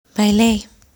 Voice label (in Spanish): "baile" Spanish; how to learn spanish